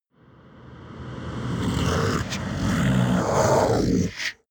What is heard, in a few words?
demon dark voice reverse let me out